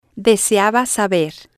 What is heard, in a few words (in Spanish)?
"deseaba Saber", spanish